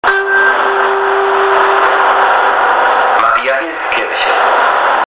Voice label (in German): Ansage, Maria Hilf Kirche; freiburg